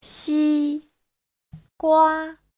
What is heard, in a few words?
"xi1 gua1", Chinese